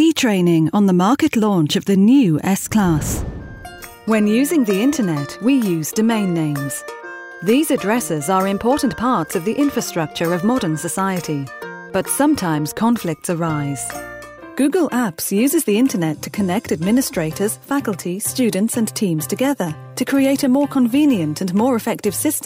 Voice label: Corporate and Elearning